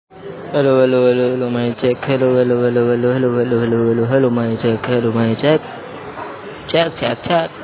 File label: Voice Note